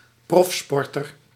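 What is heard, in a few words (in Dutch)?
"profsporter", Dutch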